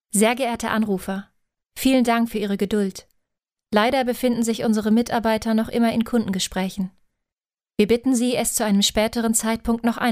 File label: de female